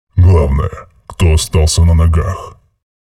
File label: chatter neutral; visor